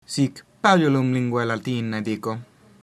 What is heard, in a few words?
yes a little, latin